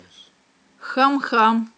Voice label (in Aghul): "хьам-хьам", aghul